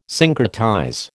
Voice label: "syncretize", American

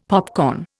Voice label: "popcorn", Indian; Dictionary

